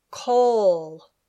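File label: "coal", English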